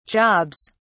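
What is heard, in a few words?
"jobs", British English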